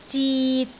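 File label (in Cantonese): "zit3", Cantonese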